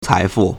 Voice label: "cai2fu4", Chinese

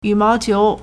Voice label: "yu3mao2qiu2", Chinese

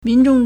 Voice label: "min2zhong4", Chinese

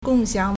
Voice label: "gong4xiang3", Chinese

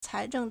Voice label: "cai2zheng4", Chinese

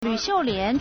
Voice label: "lv3xiu4lian2", Chinese

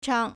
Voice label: "chang1", Chinese

